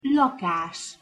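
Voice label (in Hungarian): "lakás", Hungarian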